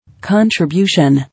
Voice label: "Contribution", English